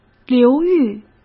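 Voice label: "流域", Mandarin Chinese; hsk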